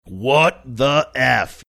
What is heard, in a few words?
saying what the eff gruff